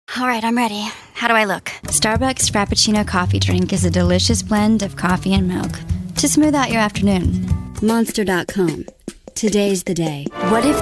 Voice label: Cat Commercials